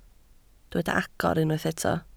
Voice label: "agor", Welsh